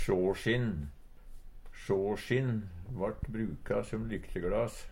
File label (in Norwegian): "sjåsjinn", Numedalsmål; Sjåsjinn vart bruka som lykteglas.